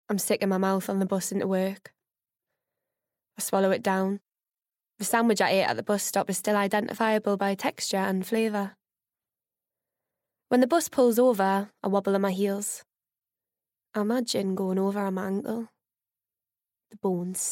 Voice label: Audio Book